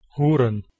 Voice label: "hurn", Proto-Germanic